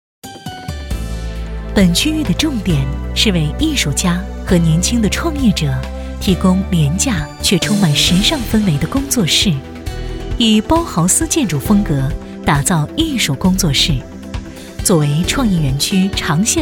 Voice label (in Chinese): 女 专题 自然浑厚大气专题